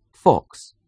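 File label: "fox", English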